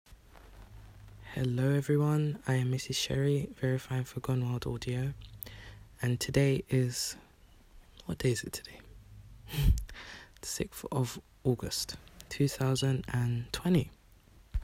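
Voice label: Female verification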